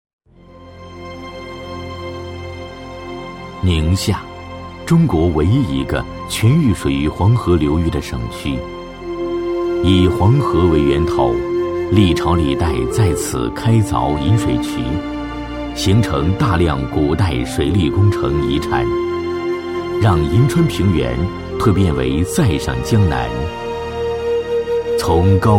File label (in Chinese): 男 宣传片; 宁夏水利博物馆; 历史沧桑 沉稳大气